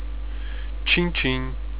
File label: cheers, europortuguese